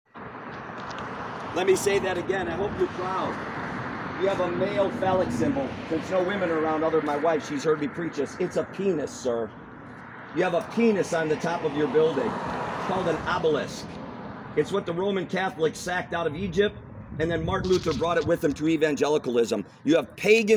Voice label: preaching outside lutheran church